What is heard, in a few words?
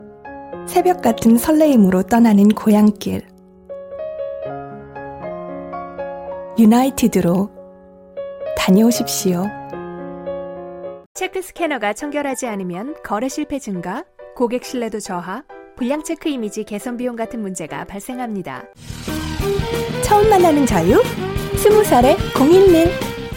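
Korean General; GoLocalise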